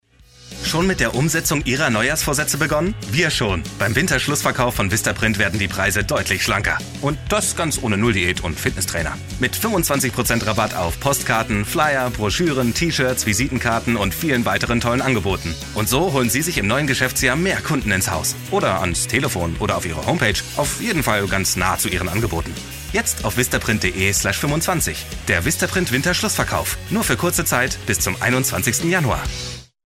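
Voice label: German, male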